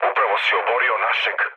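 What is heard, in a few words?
Serbian player killed ally mood low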